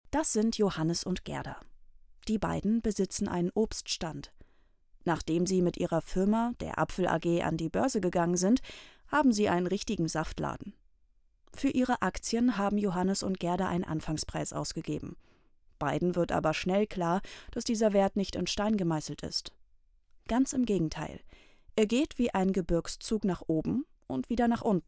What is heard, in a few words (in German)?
Erklaervideo erklaerend freundlich; Radiofeature